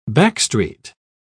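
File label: "backstreet", British English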